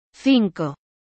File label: "Cinco" spanish